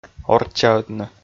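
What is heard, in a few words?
"орччаӧдны", Komi, male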